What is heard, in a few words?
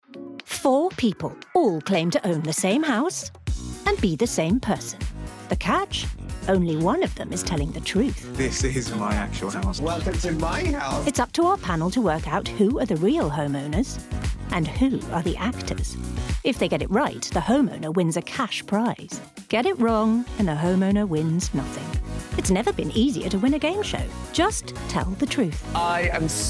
Cheeky entertaining relatable; This is my House